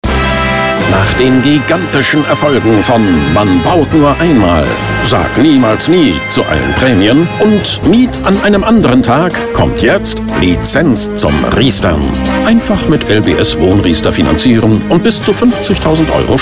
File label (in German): Funkspot; LBS-Riestern